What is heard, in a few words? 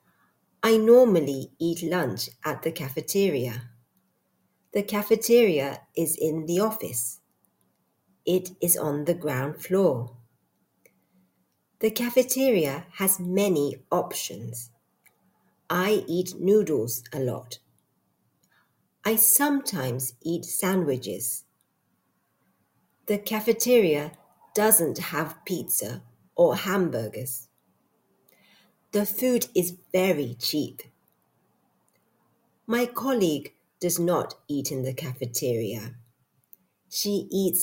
conversation with accent from Japan; “Where do you eat lunch?”; Places, Food